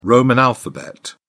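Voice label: "roman alphabet", English, British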